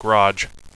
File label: "garage", radio bot; cstrike